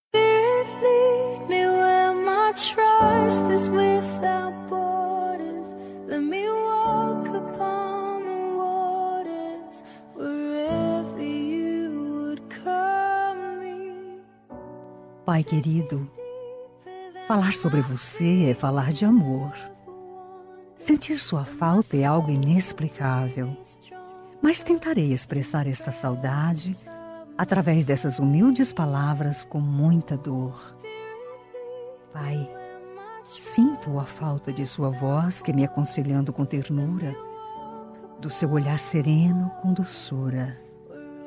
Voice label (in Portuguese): fem, Dia dos Pais Distante – Voz Feminina; linda